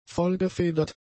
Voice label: "vollgefedert", German; adjectives

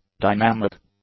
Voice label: "dynamic", English; adjectives

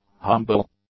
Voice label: "humble", English; adjectives

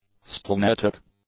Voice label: "splenetic", english; adjectives

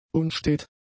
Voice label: "unstet", German; adjectives

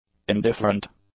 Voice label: "indifferent", English; adjectives

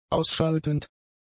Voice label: "ausschaltend", German; adjectives

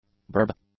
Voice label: "burb", English; nouns

